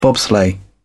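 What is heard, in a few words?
"bobsleigh", British English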